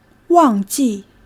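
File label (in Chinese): "wàngjì", Chinese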